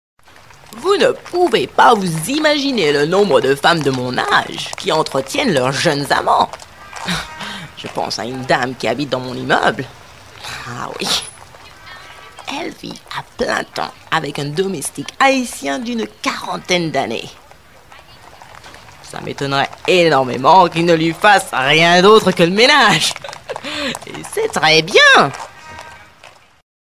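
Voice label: Female French drama mix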